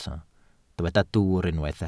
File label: "dwwr", Welsh